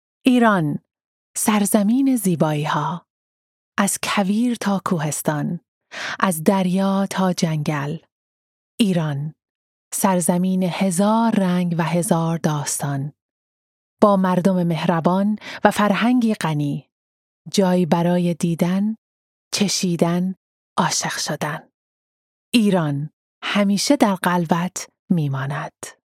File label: Farsi